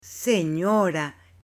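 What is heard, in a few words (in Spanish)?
"senora", Spanish, male; AppLenguaje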